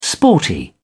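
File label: "sporty", British English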